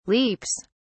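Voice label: "leaps", English, British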